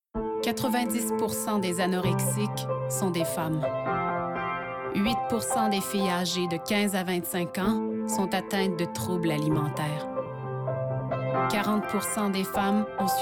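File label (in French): Anorexie narration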